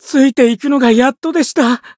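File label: Voice win, Japanese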